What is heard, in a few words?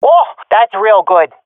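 compliment, swat female; Counter Strike Model Pack; CS:GO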